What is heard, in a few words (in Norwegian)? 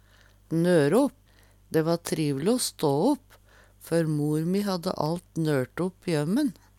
nøre opp, Numedalsmål; Dæ va trivle o stå opp før mor mi hadde alt nørt opp i ømmen.